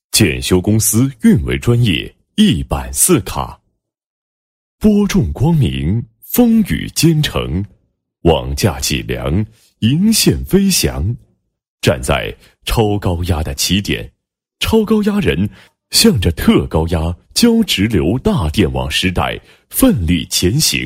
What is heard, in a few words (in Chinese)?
男 电力专题 检修公司变电运维专业“一板四卡”